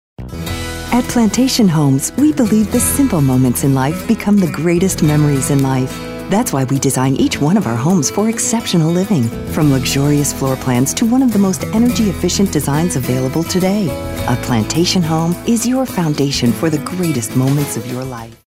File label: warm